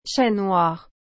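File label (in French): "chat noir", French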